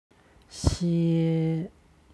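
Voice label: "xie", Chinese; 些; いくつかの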